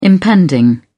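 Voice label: "impending", British English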